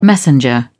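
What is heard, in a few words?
"messenger", British English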